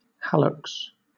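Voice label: "hallux" British English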